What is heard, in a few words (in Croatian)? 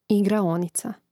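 "igraonica", hrvatski; mreznik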